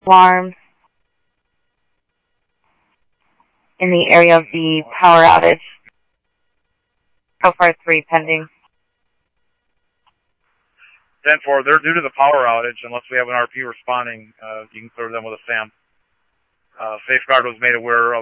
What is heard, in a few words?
Scottsdale PD Scottsdale Police, scanner audio